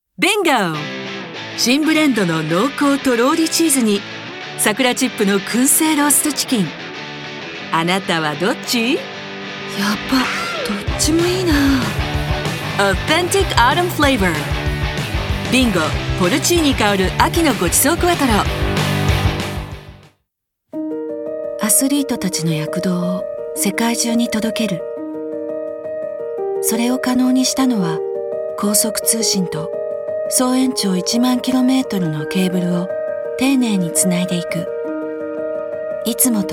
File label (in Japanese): CMメドレー